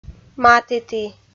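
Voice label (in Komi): "матіті", Komi-Zyrian, female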